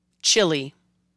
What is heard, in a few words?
"Chile", Spanish words used in English